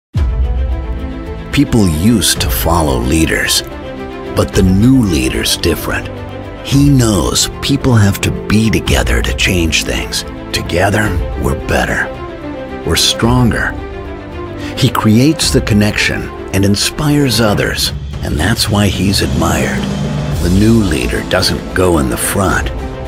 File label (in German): TV Werbespots Online Werbespots Breites Publikum; NATIVE PROFISPRECHER